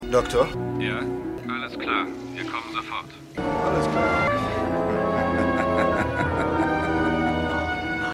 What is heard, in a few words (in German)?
Krankenpfleger Telefonstimme Taxifahrer; Outer Limits